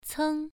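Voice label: "ceng" Mandarin, first tone, pronunciation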